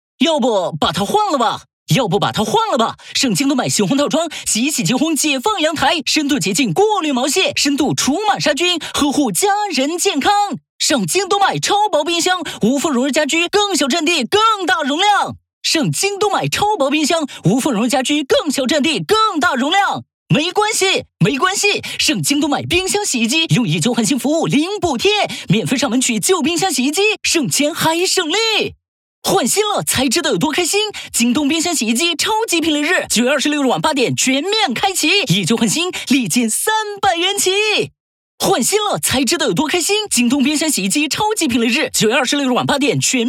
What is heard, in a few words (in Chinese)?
男 广告 冰箱洗衣机; 京东冰箱洗衣机